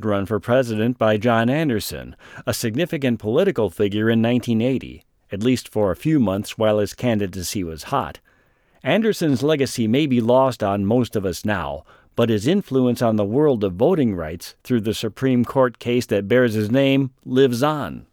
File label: Election Narration